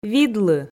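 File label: "видлы", Komi, female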